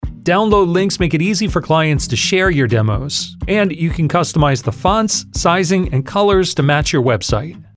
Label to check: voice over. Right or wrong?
Right